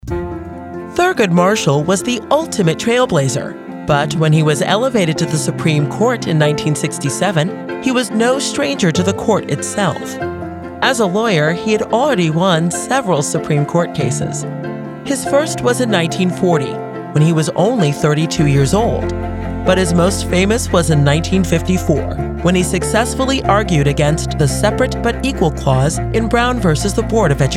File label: audiobook